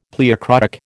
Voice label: "pleochroic", American